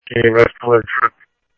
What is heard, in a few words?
Police, scanner audio trunk; H-Scottsdale